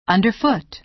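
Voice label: "underfoot", American English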